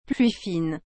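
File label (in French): "pluie fine", French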